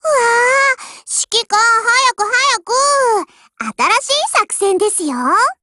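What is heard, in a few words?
HELLO, Japanese; GF Alarm; M4 SOPMOD IIMod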